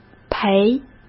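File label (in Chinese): "赔", Mandarin Chinese; hsk; chinese-flashcards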